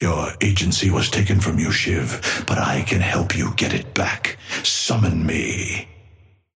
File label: male ally start; Patron; shiv